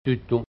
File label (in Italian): "tyttu", genovese; 'tyttu/ (tutto)